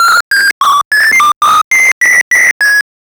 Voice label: someone that sounds like, announcer